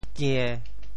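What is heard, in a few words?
"kie~1", Teochew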